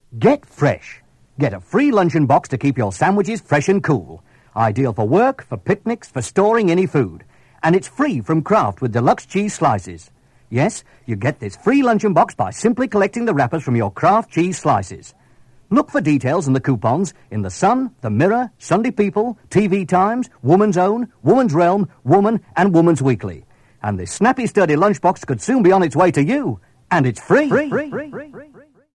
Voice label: Radio Advert, Cheese Slices; Radio Hallam; Kraft